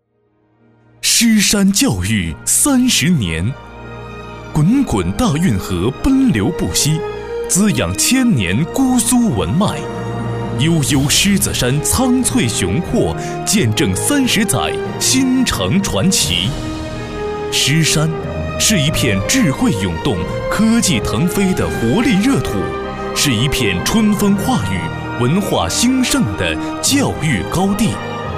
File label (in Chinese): 男, 专题, 大气, 雄壮; 狮山教育